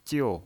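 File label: "jiu", fourth tone, pinyin voice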